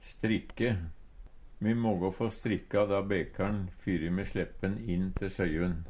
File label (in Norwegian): strikke, Numedalsmål; Verb